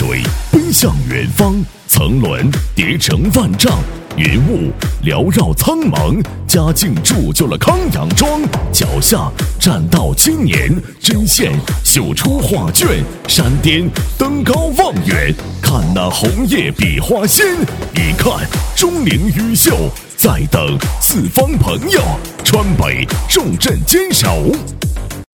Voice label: 男 Welcome to; 朝天; 夸张震撼